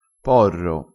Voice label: "porro", crespinese

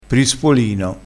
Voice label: "prispolino", crespinese

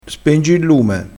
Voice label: "peretta", crespinese

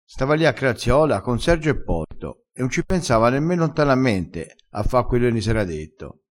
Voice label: "sta a craziola", crespinese

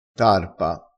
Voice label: "tarpa", crespinese

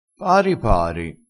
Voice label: "pari pari", crespinese

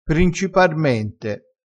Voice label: "principarmente", vocabolario dialettale

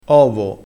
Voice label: "ovo", crespinese; vocabolario dialettale

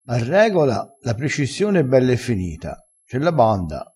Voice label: "a regola", Crespinese

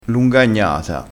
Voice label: "lungagnata", crespinese, vocabolario dialettale

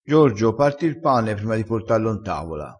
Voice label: "parti", crespinese

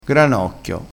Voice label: "granocchio", crespinese

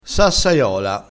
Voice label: "sassaiola", crespinese

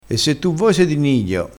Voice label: "nidio", dialettale crespinese

